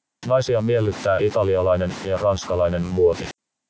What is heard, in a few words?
noisy factory